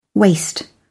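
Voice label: "waste", British English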